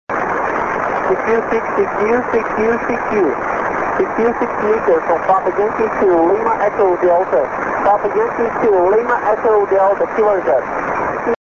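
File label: 6m single sideband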